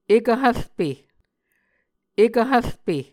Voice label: "ekv hvrpe", Muscogee